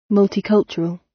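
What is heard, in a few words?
"multicultural", British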